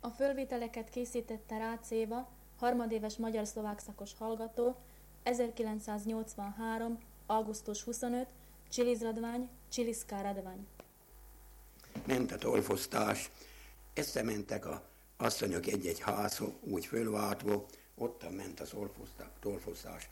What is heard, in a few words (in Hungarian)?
nyelvjaras gyujtesek